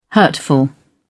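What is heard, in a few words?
"hurtful", English, British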